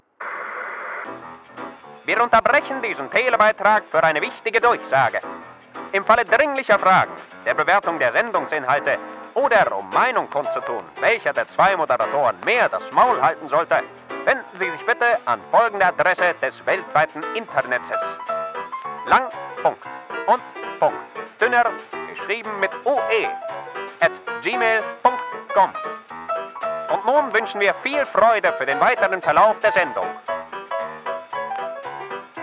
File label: Podcast E-Mail Jingle; Stimmfarbe: Weich, Warm, Rauchig